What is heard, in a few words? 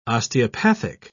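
"osteopathic", British English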